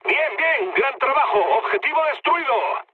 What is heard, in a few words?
bomb success, mood low, speech; War Thunder